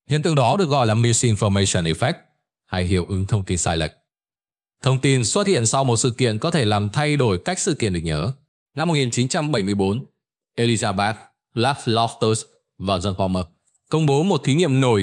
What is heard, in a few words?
narration